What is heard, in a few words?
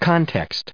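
"context", US English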